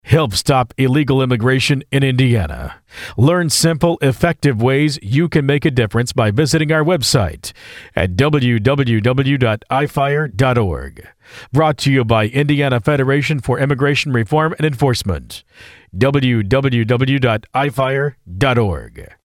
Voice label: ad